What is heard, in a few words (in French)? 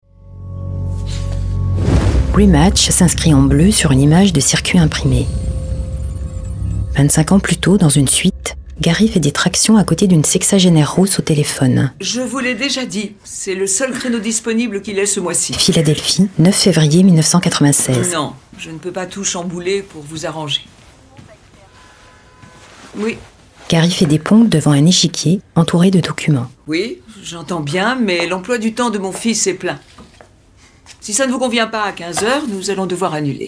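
AUDIODESCRITPION, Voix off; Rematch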